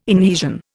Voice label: "inhesion", Indian

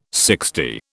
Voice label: "sixty", British

